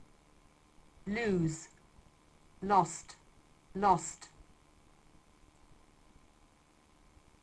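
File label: "lose", English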